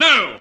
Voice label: "no", ANGRY

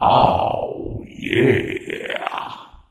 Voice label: "oh yeah", voice

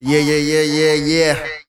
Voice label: "yeah yeah yeah yeah yeah", voice; ooh ahh

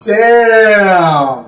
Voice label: "damn", expletives

